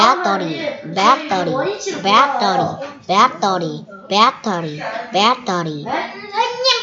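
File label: "battery", spoken word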